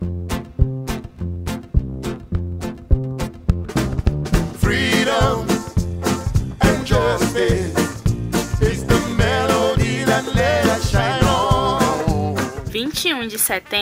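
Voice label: jingle Portuguese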